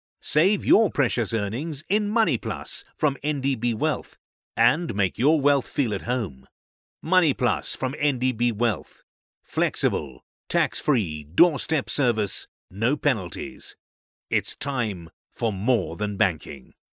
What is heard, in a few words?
male british voice over sales, english british